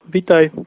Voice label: welcome, slovak